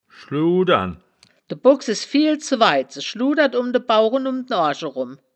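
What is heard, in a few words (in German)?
"schludern", wittlicher woerterbuch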